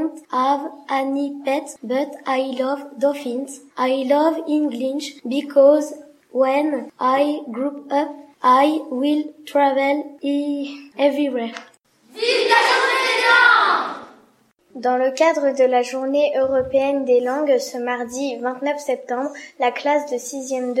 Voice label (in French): auxiliaire anglais